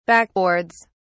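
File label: "backboards", British English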